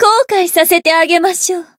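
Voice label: Voice chant, japanese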